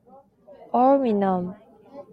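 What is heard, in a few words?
"aluminum", Japanese female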